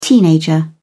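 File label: "teenager", British English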